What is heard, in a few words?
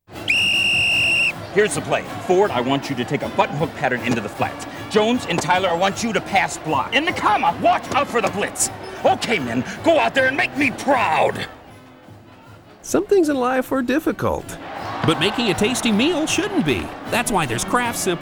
TV Spot Voiceover; Kraft